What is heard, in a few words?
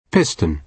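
piston, British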